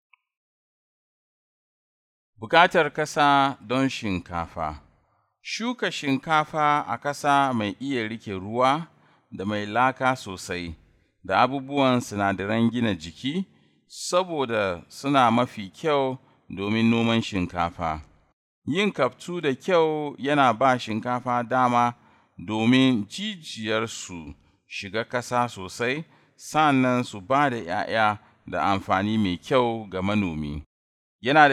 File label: Rice Msg Hausa Male